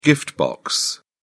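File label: "gift box", British English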